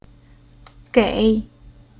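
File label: "Gei", Chinese syllable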